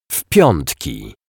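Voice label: "w Piatki", Polish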